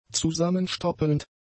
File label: "zusammenstoppelnd", German; adjectives